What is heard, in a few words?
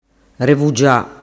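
"revugia", Sicilian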